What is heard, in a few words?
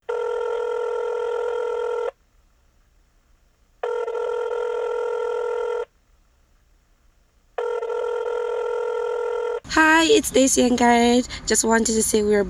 After the Tone Greeting